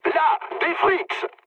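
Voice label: French enemy detected mood med; War Thunder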